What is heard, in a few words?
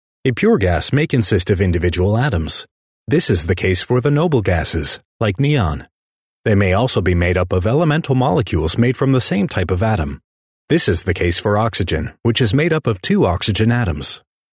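English US male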